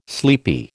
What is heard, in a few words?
"sleepy", American